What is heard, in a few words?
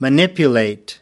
"manipulate", us